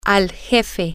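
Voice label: The boss, Spanish, female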